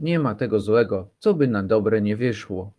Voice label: polish; po-polish